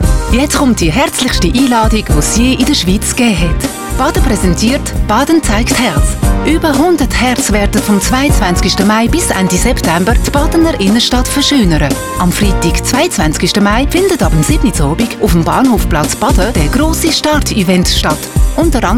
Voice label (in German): Spot Baden, dialekt